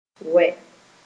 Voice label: "wɛ", moore